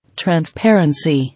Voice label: "Transparency", English

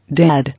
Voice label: "Dad", English

